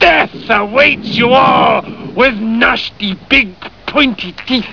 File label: male taunt; starsiege